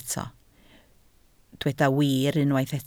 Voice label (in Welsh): "wir", Welsh